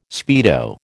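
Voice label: "speedo", American English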